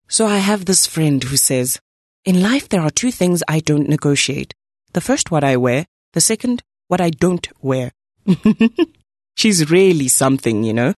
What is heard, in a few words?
conversational